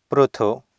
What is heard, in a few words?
"prthu" Sanskrit